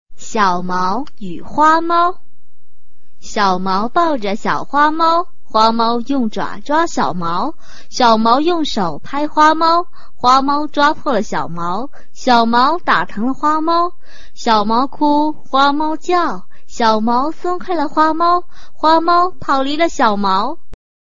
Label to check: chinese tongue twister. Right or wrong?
Right